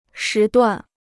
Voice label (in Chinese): "时段", Chinese; shí duàn; time interval; work shift